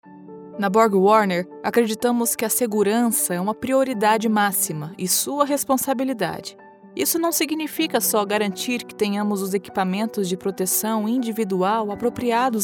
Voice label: elearning training middle age sincere female brazilian portuguese; borgwarner